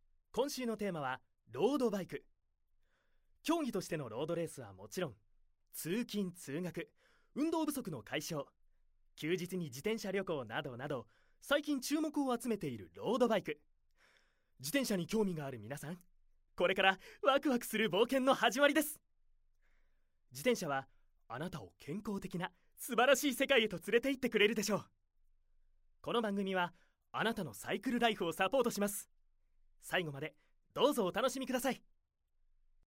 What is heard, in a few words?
narration